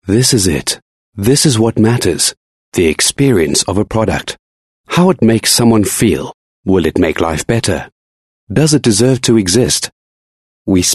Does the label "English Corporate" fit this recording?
Yes